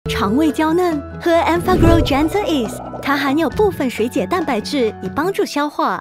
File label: Mandarin Announcer, Style: Sweet & Gentle; 甜美轻柔; Oxy